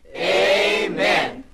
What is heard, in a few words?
amen, group; Voice